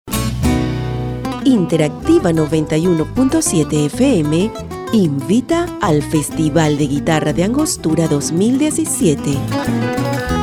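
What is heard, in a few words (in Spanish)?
corporativo, Venezuela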